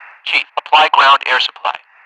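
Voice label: "request to apply air", English speech; DCS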